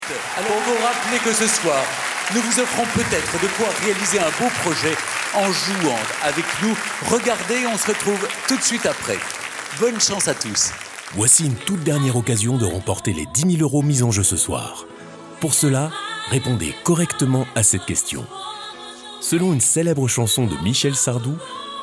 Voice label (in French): Voix antenne; France 2 Prime Time; Stephane Bern